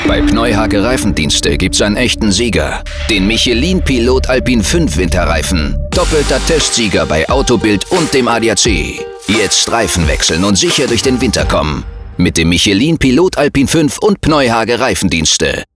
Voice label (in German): KERNIG TIEF REIFEN; PNEUHAGE